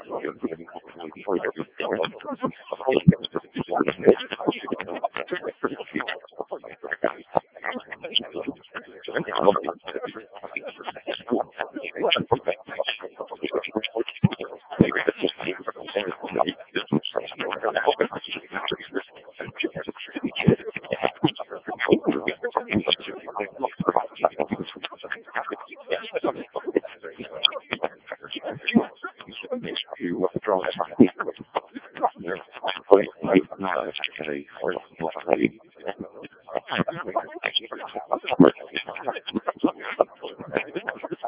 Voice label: decoded speech, 7177KHz